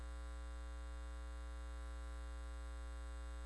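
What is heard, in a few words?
"bog", spoken word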